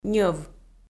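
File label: "ньӧв", Komi, female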